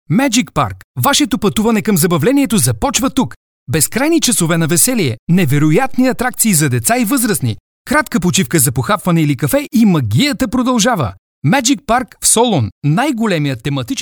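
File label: Bulgarian, male, commercial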